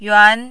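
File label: "yuan2", mandarin, rising tone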